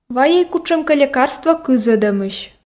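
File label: "вайӧй кутшӧмкӧ лекарство кызӧдӧмысь", Komi, female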